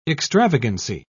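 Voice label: "extravagancy", British English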